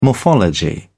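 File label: "morphology", British English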